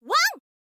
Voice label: "wan", girl